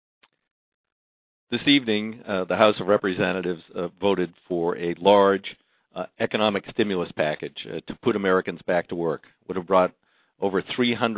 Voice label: voice message